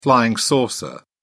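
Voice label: "flying saucer", British English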